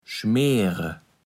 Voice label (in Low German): "schmääre", Platt